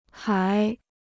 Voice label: "还", Chinese